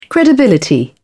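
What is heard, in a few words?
"credibility", British English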